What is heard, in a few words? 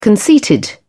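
"conceited", British English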